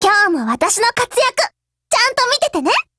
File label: HELLO JP; GF Alarm; K2